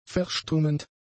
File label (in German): "verstummend", German; adjectives